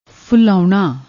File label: "fullaouna", Punjabi